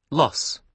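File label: "loss", British English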